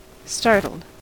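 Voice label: "startled", US English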